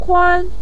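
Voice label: "kuan", Mandarin Chinese, neutral tone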